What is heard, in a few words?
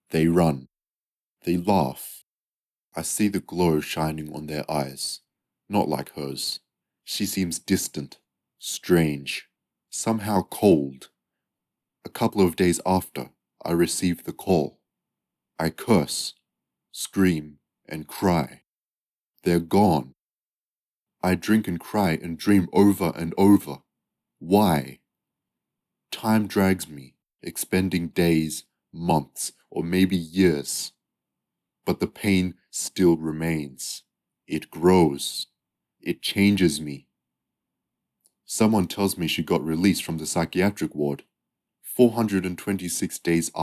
narration example